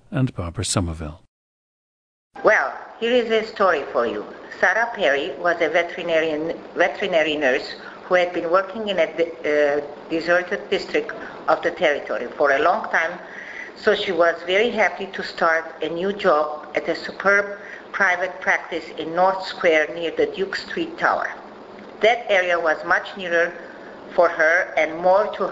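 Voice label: armenia, International Dialects of English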